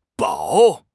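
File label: "bao3", Mandarin Chinese; HSK3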